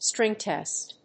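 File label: "string test", English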